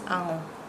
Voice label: "ango", Nukuoro

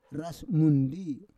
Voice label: "ras mundi", Roon

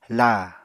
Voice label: "laa", Apatani

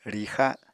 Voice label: "rixa", apatani

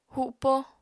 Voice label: "hupo", Apatani

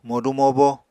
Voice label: "modu-mobo", Apatani

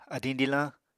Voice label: "adiñ dilañ", apatani

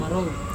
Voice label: "maro" blood, Serewen

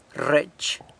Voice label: "rrech", San Lucas Quiaviní; spills

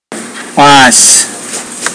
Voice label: "worm", pohnpeian

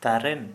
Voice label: Santali Shoulder

